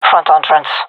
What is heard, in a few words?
loc front, gendermerie fem